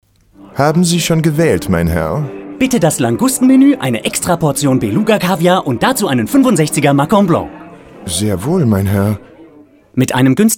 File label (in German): Werbung Radio